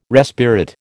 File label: "respirate", American